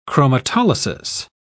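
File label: "chromatolysis", British English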